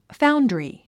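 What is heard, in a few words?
"foundry", English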